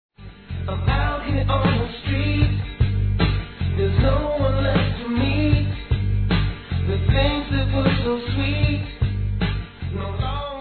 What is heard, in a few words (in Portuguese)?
Paquera Feminino; Telemensagens 2017